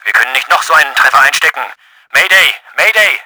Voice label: HIT, german; Battle Engine Aquila